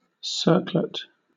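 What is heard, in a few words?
"circlet", English, British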